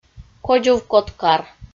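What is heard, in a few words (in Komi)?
"кодзувкоткар", Komi, female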